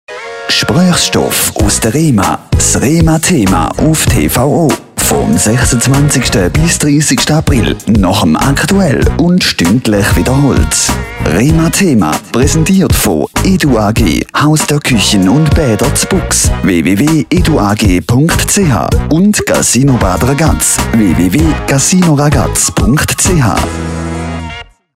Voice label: Trailer CH-DE; TVO; Rhema; sprecher; profi

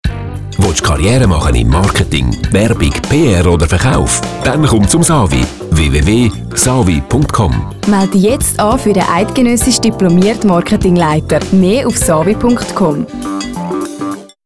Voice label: Marketingleiter CH DE; SAWI; sprecher; profi

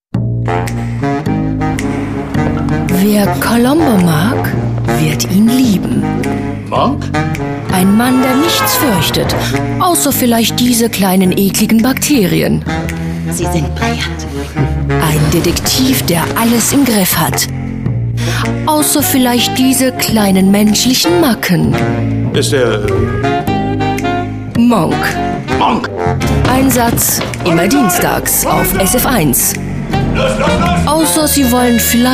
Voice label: Trailer Komoedie DE; sprecher; profi

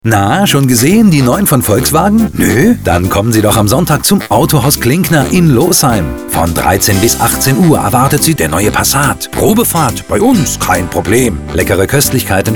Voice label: VW DE

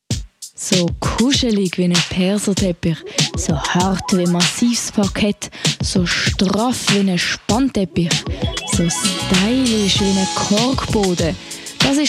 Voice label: Trailer CH-DE

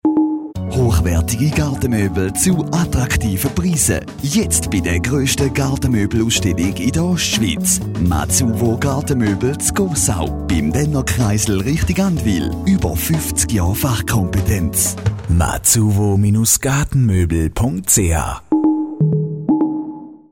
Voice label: Spot Image CH-DE; Mazuvo; sprecher; profi

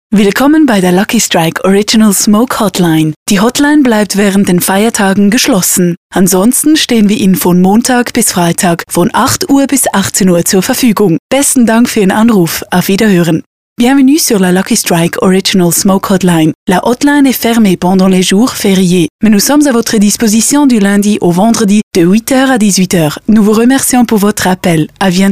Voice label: Ansage DE; sprecher; profi

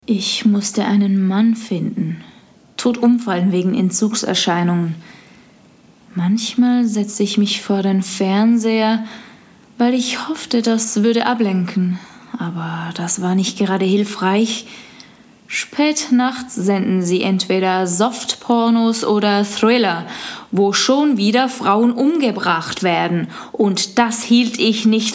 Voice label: Sprechprobe DE

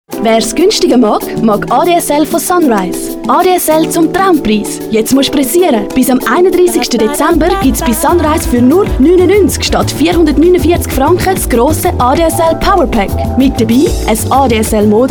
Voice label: CH DE; Sunrise; sprecher; profi

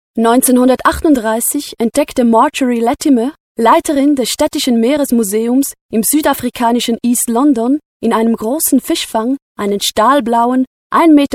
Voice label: Doktext DE; sprecher; profi

